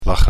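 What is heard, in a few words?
"lachen", Dutch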